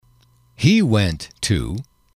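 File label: he went to, English, male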